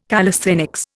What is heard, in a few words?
"calisthenics", Indian